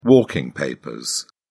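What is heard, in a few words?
"walking papers", British English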